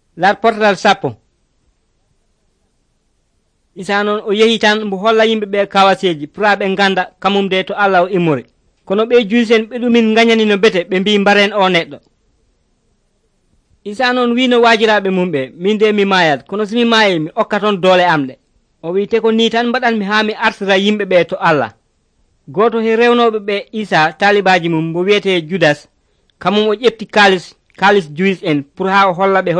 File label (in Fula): pulaar fulacunda, drama